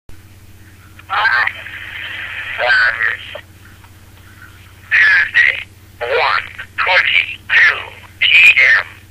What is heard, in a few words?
Phone Messages; EVP; This is an EVP which appeared on my answering machine, like all the others, it was from an unknown name, unknown number